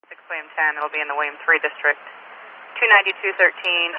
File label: female police radio